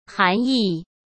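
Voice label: "涵义", Mandarin Chinese; chinese-flashcards; hsk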